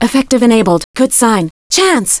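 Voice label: "Effective enabled. Good sign, chance!" Low Female Voice; Knights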